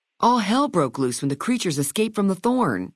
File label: female adult greeting; Fnv